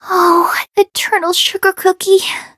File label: defeat, en; cookie run